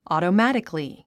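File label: "automatically", English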